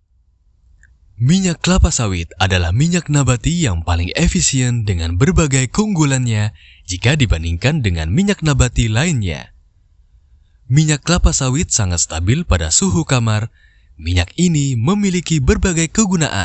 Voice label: Formal Indonesia

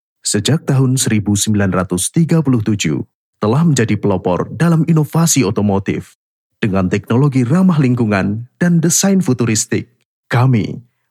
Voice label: FORMAL INDONESIA

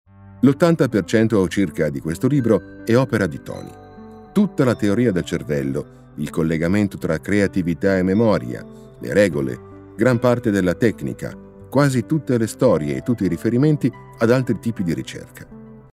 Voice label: ITALIAN FORMAL

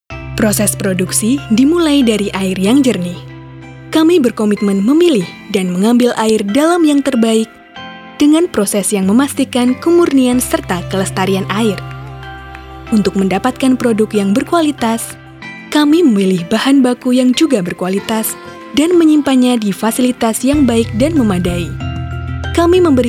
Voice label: INDONESIA FORMAL

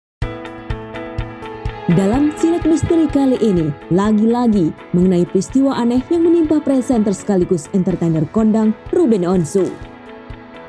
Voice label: INDONESIA CASUAL

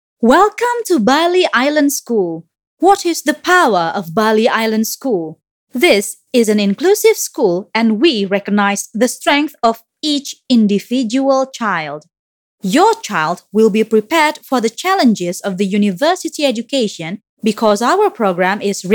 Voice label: ENG CASUAL

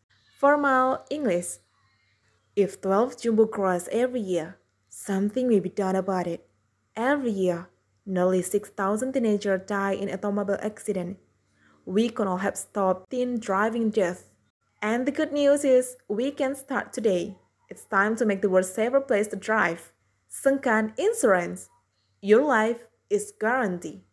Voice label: FORMAL ENGLISH

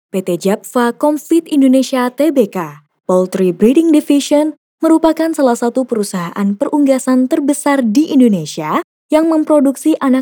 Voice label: formal; BAHASA INDONESIA

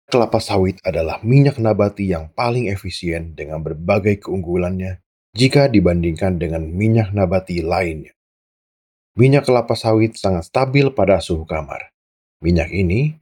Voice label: FORMAL INDONESIA

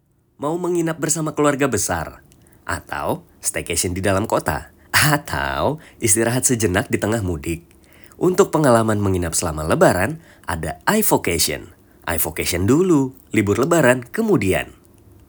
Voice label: Casual Indonesia

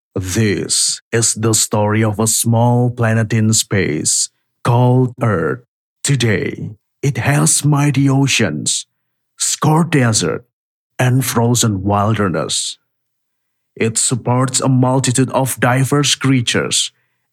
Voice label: FORMAL ENGLISH

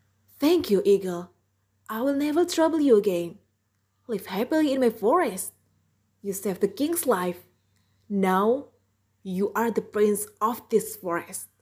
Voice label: Karakter English

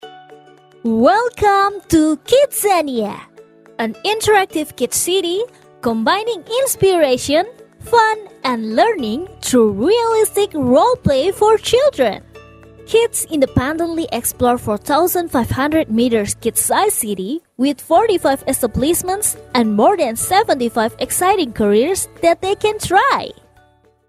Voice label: English casual

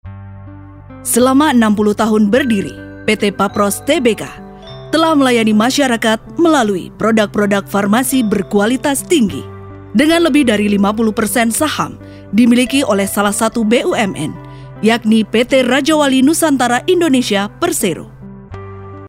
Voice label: INDO FORMAL

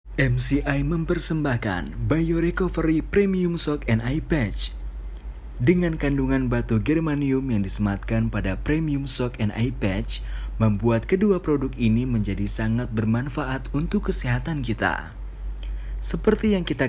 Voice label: FORMAL INDONESIA

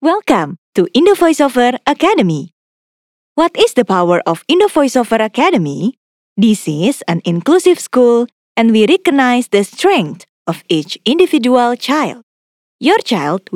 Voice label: ENGLISH CASUAL

